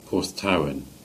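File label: "Porthtowan", Cornish; place-names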